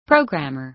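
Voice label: "programmer", American English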